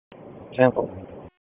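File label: Raleigh Police Southeast District Dispatch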